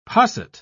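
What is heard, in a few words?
"posset", British English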